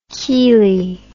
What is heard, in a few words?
"cheli", English